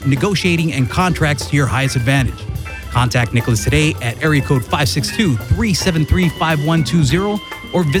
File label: Real Estate Commercial